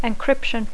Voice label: "encryption", English; Dacco

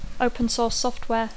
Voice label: "open source software", English; Dacco

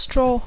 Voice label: "straw", English; Dacco

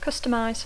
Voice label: "customize", English; Dacco

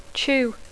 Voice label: "chew", English; Dacco

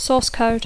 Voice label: "source code", English; Dacco

